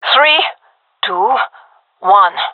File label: countdown, gendermerie fem; vo